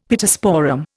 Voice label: "Pittosporum", Indian; Dictionary